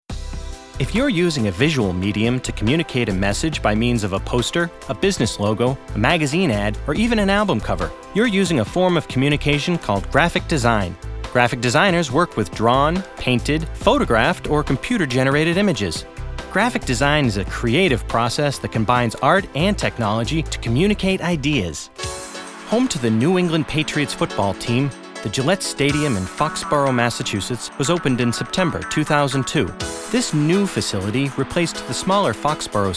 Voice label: Narration